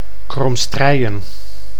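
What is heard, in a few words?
"Cromstrijen", Dutch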